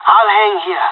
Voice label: waiting here, pirate; Counter Strike Model Pack; CS:GO